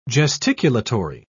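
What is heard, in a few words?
"gesticulatory", British English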